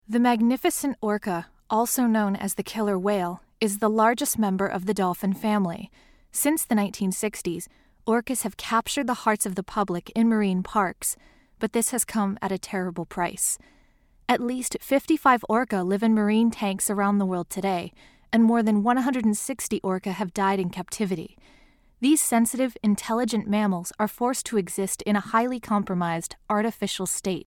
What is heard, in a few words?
Orca PSA Narr; Bristol